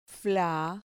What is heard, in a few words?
"fla", Spanish